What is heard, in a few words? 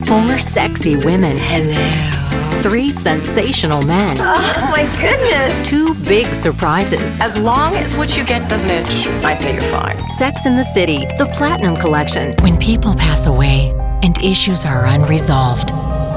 Promo, femalevoices